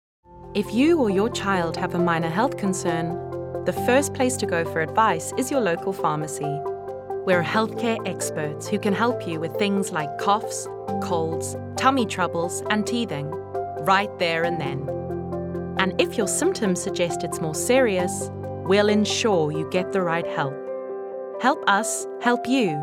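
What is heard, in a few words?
South African English Narrative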